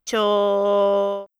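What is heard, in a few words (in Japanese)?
"ちょ", Japanese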